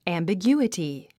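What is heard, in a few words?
"ambiguity", English word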